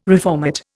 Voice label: "reformat", Indian